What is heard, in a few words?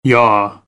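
"yaw", American, English; AmericanBritish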